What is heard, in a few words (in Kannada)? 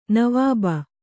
"ನವಾಬ", Kannada